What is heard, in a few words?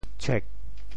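"tshuek4", Teochew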